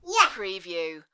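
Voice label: Toddler Says Yeah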